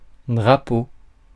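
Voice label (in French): "drapeau", French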